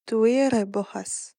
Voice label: civ female hunt, napatan

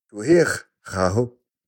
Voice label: civ male attack, napatan

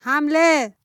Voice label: female attack, persian

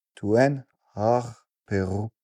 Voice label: male garrison, voice napatan civ

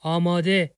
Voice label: civ male ready, persian

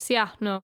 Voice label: civ female repair, greek

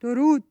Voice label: female hello, persian

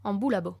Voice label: female walk, latin

